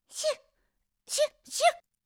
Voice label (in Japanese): シュ！シュ！シュ！, 妹系／ぶりっ子／元気な子